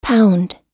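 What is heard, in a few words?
"pound", American English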